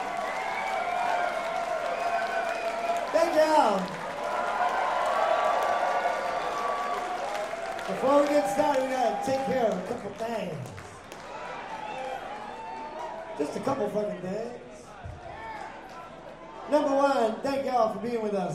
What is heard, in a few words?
Public Service Announcement